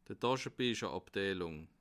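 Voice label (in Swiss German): "taschebiecherabteilung", Bas Rhin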